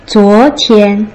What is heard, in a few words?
"zuo2 tian1", Putonghua